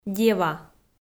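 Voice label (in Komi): "дева", Komi, female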